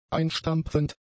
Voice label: "einstampfend", german; adjectives

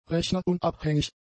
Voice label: "rechnerunabhängig", German; adjectives

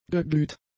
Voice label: "geglüht", german; adjectives

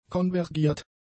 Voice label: "konvergiert", German; adjectives

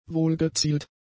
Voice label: "wohlgezielt", German; adjectives

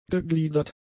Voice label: "gegliedert", german; adjectives

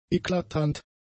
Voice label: "eklatant", german; adjectives

